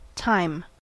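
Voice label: "time", US English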